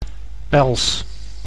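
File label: "pels", Dutch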